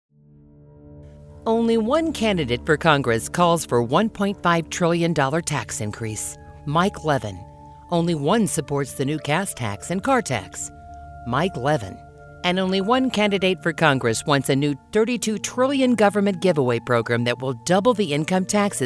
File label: Radio Spot